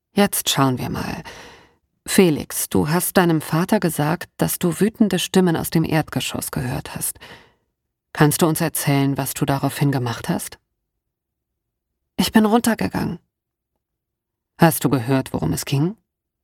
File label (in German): Hoerbuch; Schattengast; Felix